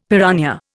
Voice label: "piranha", Indian; Dictionary